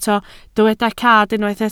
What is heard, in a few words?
"cad", Welsh